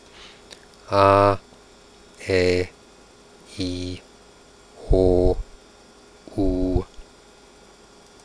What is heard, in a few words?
quenya long aeiou